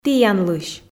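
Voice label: "тіянлысь", Komi, female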